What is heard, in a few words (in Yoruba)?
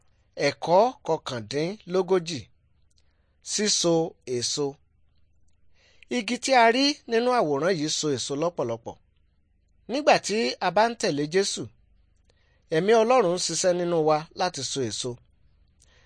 good news yoruba